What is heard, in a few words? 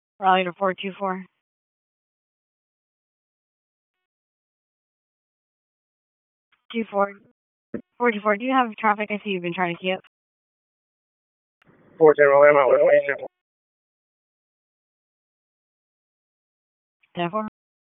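Police Southeast District Dispatch; Raleigh; wake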